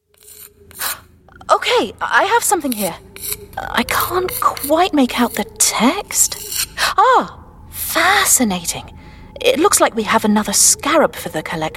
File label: gaming character, voiceover